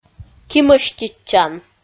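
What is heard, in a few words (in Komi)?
"кимӧститчан", Komi, female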